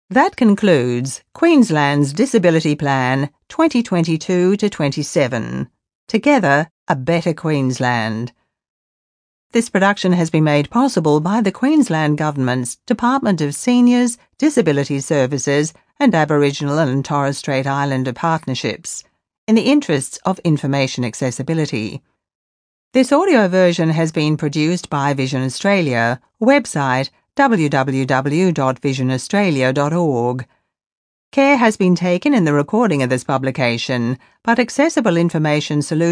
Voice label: closing announcement; state disability plan